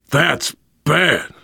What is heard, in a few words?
reaction negative, voice, biker; Left 4 Dead 2; survivor